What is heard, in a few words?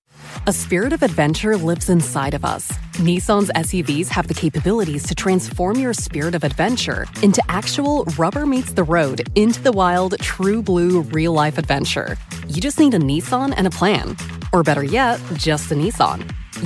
english american automotive